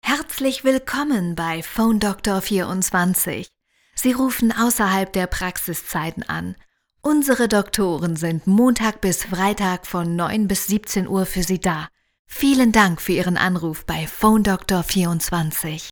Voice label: German